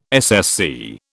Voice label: "SSC", British